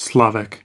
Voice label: "slavic", us

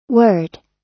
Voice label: "word", us

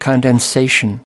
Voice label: "condensation", us

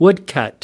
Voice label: "woodcut", us

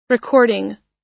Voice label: "recording", us

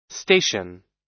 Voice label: "station", us

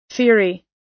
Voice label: "theory", us

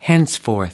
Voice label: "henceforth", us

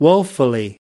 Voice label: "woefully", us

